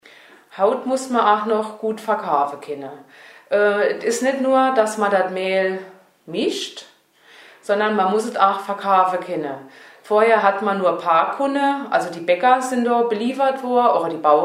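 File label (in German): kaufmaennische aufgaben, O-Ton Hunsrück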